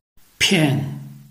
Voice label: "pian4", Chinese

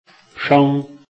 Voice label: "sheng1", Chinese

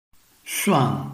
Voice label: "suan4", Chinese

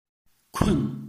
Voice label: "kun4", Chinese

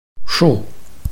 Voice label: "shu4", Chinese

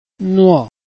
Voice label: "nuo4", Chinese

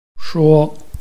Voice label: "shuo1", Chinese

